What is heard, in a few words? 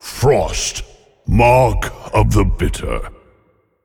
Male, Trigger; Eternal One